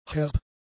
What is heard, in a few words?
"herb", German; adjectives